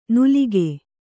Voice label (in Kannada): "ನುಲಿಕೆ", Kannada